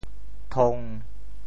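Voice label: "thong1", Teochew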